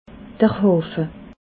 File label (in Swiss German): "hafe", alsace